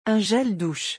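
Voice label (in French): "un gel douche", French; vocabulaire; le lexique des vacances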